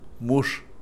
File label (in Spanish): ombligo, Kakchikel